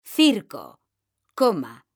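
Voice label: "CIRCO COMA", Spanish, female; mekanta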